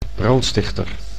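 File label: "brandstichter", Dutch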